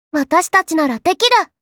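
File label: "Dekiru", Japanese, kawaii voice; Positive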